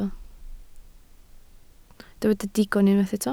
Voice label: "digon", Welsh